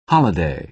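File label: "holiday", American English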